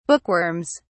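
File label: "bookworms", British English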